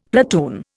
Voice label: "platoon", Indian; Dictionary